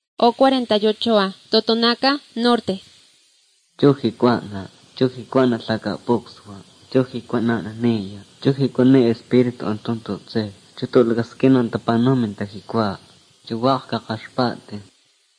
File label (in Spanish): spanish mexico